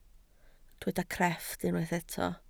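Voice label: "crefft" Welsh, spoken word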